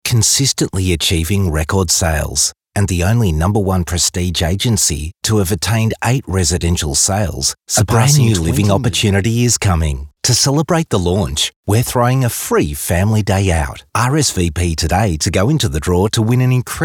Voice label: Soft Sell